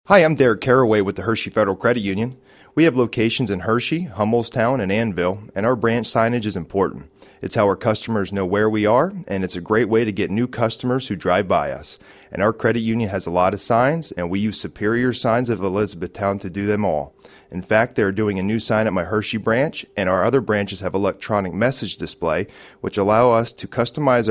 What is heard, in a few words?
testimonial vox; Superior Sign